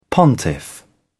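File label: "pontiff", British English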